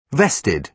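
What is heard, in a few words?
"vested", British English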